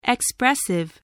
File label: "expressive", voice